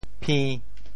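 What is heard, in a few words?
"phi~1", Teochew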